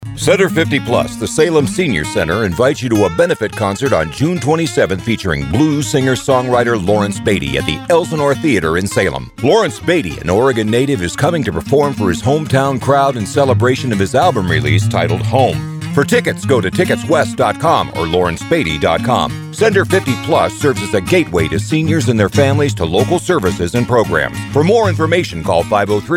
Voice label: Radio Ad; Elsinore Theatre